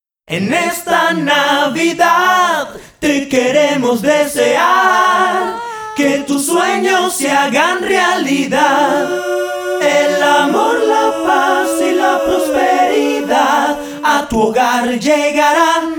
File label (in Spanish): Radio Jingle Voces; Jingle Navidad 2015